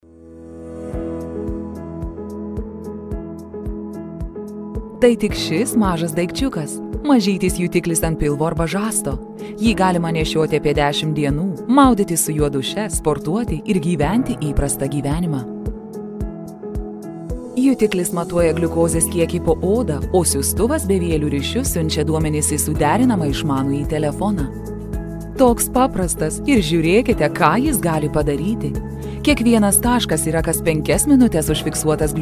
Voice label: female, Lithuanian medical explainer